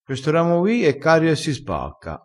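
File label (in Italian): "cario", dialettale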